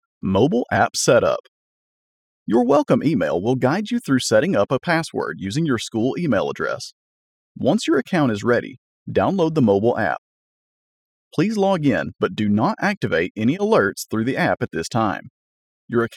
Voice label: Voiceover Corporate Explainer